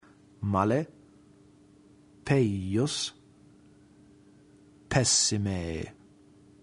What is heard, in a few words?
male